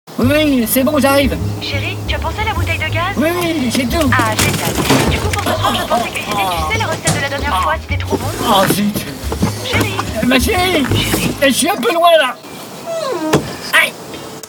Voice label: Voix Off Pub TV; GAZISSIMO

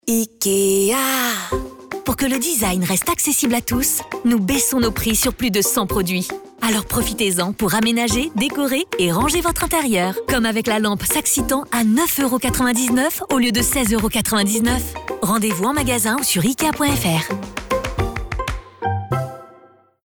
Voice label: Voix Officielle, pubs radio; IKEA; Design Accessible; Intemporel

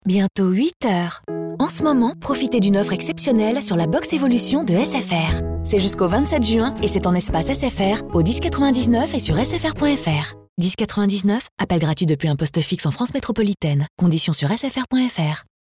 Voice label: Pub radio; SFR Box Evolution

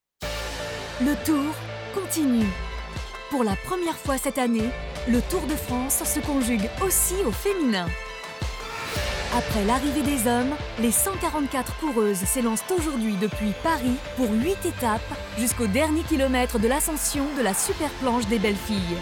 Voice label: Voix Off, Voix pub radio; Tour de France Féminin; VERS INFO

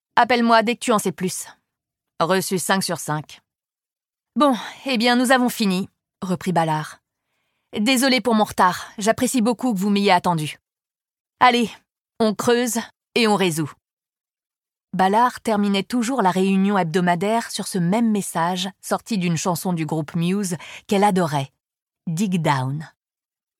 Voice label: LIVRE AUDIO; Renée Ballard; « A qui sait attendre » de Michael Connelly; Série d'enquêtes avec Harry Bosch; audiolib

